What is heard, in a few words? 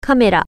camera, japanese